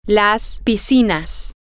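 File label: the pools, Spanish, female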